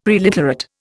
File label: "preliterate", Indian; Dictionary